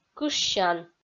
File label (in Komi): "кышсян" Komi